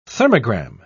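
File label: "thermogram", British English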